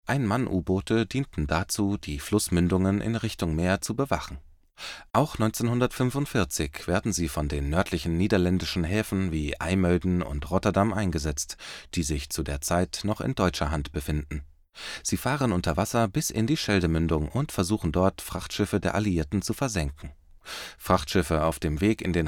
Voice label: German; For Freedom Museum

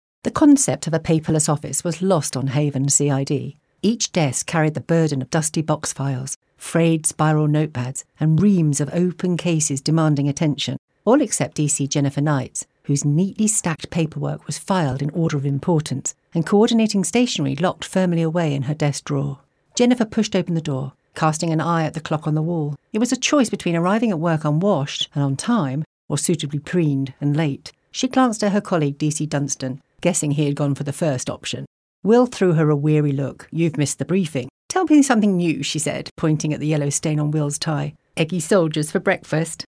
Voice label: AUDIOBOOK British Crime Book

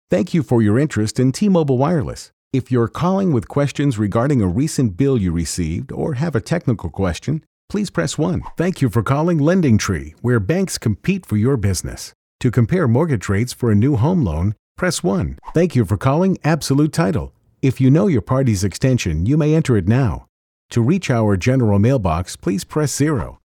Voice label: Phone IVR Voice

